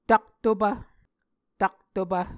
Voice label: "taktopv", Muscogee